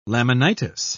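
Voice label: "laminitis", British English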